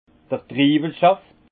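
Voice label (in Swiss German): "triiwelsaft", alsace Haut-Rhin; jus de raisin; vin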